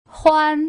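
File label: "huan", Putonghua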